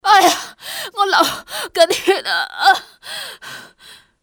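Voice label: im bleeding, civ hk female; Soldier Of Fortune II Double Helix; XBOX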